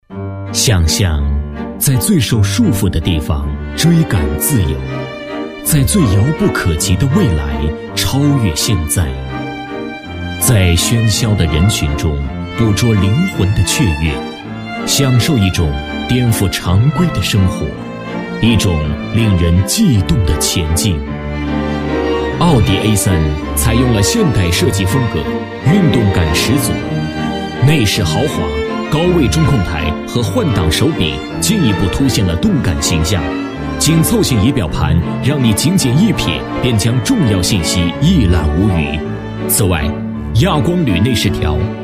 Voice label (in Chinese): 男 专题 华贵时尚, 国语男声配音, 浑厚; 专题奥迪A3系